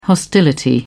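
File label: "hostility", British English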